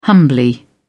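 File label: "humbly", English, British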